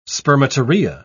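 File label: "spermatorrhoea", British English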